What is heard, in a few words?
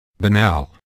"banal", English